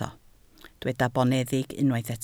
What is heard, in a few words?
"boneddig", Welsh